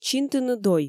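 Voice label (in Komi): чинтыны дой, Komi, female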